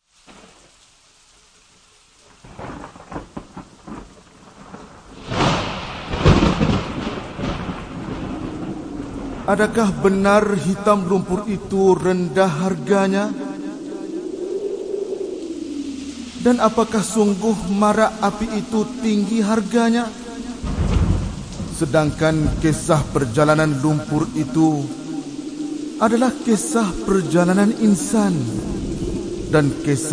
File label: Narration